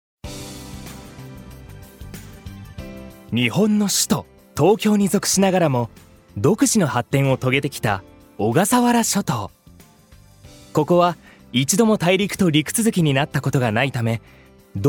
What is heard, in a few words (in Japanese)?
ナレーション